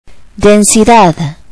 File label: "density", spanish